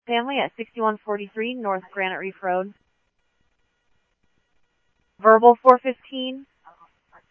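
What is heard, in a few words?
police department, scanner audio trunk; SCTSDLE PD - PD2 ScottsdalePolice; ThompsonPeakHigh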